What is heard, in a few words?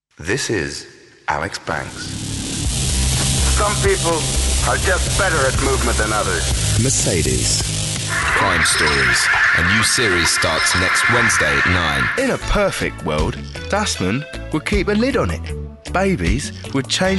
Voice Over